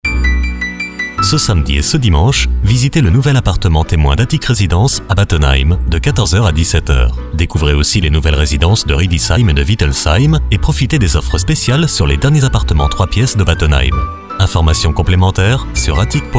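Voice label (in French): spot, spots radio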